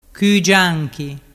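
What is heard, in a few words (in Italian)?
"cugianchi", dialect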